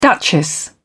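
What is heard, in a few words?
"duchess", British English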